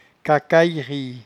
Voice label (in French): "cacayerie", patois jura